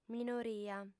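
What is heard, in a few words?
"minoria" Sardinian, female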